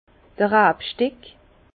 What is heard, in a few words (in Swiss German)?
"rabsteck", Bas Rhin; vin; cep de vigne